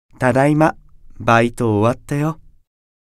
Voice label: Job Notification Voice; Solomon